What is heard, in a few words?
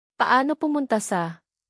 "paano pumunta", tagalog; basic tagalog for travel